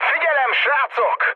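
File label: Hungarian near waypoint mood med, speech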